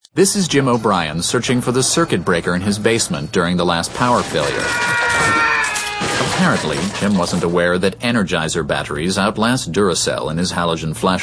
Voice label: male, sophisticated